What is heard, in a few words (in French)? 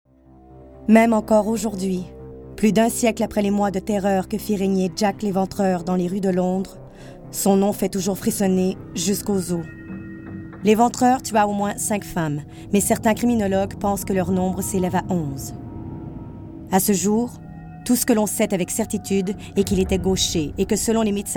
narration